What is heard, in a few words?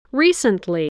"recently", english